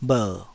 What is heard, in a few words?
"bher3", taiwanese